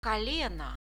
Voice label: "koleno", Russian; колено

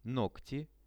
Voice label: "nogti", Russian; ногти; Это ногти.; visual dictionary

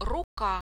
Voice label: "ruka", Russian; рука

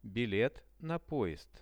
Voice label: "bilet na poezd", Russian; билет на поезд; transport; RUSSIAN VISUAL DICTIONARY

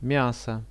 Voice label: miaso, Russian; products

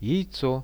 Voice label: jaico, Russian; products

